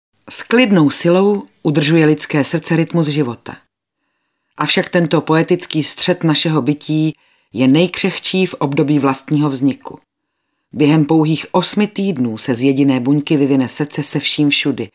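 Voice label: Female Czech documentary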